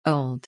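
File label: "old", English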